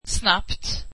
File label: swedish quickly